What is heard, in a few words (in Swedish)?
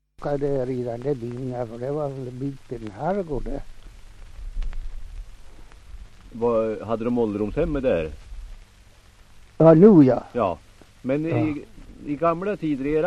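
Hed Västmanland, dialekter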